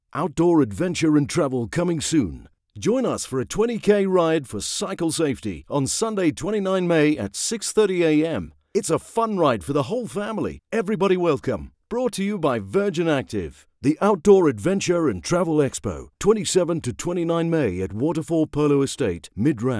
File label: Cycle Ride VO; Outdoor Expo